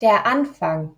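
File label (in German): "Anfang", German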